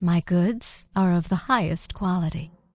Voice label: Night Elf Female Standard Vendor

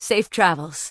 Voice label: Human Female Warrior NPC Farewell

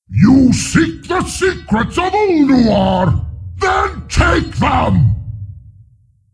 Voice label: Power, Creature; Steelbreaker

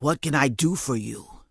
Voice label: Orc Female Standard NPC Greeting

